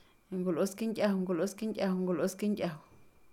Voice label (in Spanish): "cocina", Pame; cocina (lugar); INALI